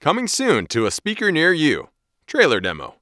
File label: Trailer